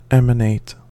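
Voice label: "emanate", US English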